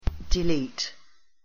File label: "delete", pronunciation en